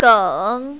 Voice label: "geng3", mandarin, female